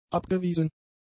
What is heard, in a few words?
"abgewiesen", German; adjectives